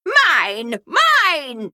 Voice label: Female Harpy Attack